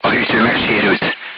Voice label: voice message radiator damaged, hungarian; crew tanks